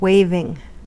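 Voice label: "waving", American English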